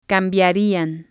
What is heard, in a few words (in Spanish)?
"cambiarían", Spanish